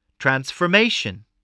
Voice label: "transformation", voice